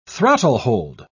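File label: "throttlehold", British English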